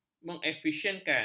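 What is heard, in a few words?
"mengefisienkan", Malay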